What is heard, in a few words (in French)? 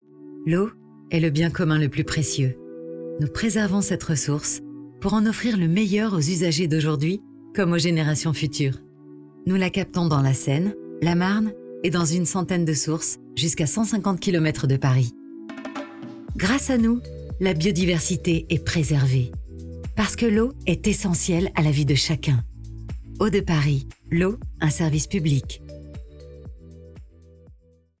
Institutionnel, comedienne voix off; Eau De Paris